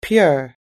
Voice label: "pure", us english